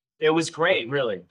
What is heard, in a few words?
Voice Acting, es